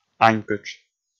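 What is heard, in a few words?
"анькытш", Komi, male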